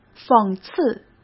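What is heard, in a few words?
"讽刺", Mandarin Chinese; chinese-flashcards; hsk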